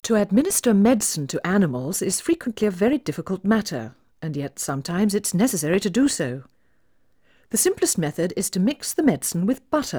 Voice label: Female speech English Mono output noised; 3bit